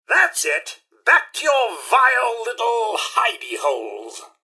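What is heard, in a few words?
attack, mrhandy, robot; npc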